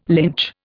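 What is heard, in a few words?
"lynch", Indian English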